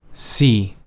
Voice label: "see", synth